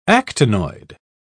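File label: "actinoid", British English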